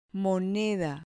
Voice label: "moneda", Spanish

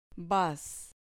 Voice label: "bas", Spanish

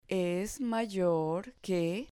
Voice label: "mayor que", Spanish

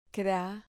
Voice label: "cra", Spanish syllable

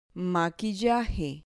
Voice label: "maquillaje", Spanish

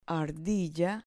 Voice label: "ardilla", Spanish

